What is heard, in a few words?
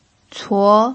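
"cuo" second tone, Mandarin Chinese pinyin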